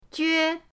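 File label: "jue1", Mandarin Chinese, pinyin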